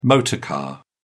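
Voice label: "motor car", British English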